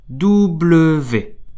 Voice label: French alphabet w